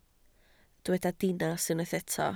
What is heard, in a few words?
"dinas", Welsh